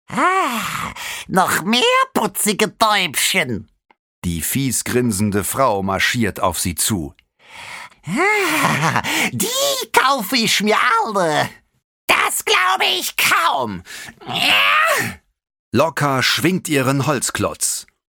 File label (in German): hoerbuch; knallharte tauben